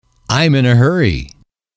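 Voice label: "I'm in a hurry", English, male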